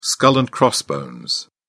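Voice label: "skull and crossbones", British English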